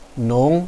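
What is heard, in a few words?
"nong2", Chinese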